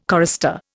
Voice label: "chorister", Indian